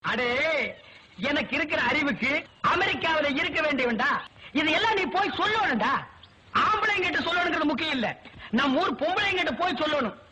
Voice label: Tamil Comedy